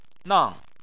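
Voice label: "nang", Chinese, fourth tone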